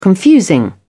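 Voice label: "confusing", British English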